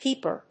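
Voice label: "peeper", English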